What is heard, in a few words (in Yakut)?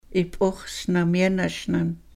ip'ux̱ sna myanashnan, sahaptin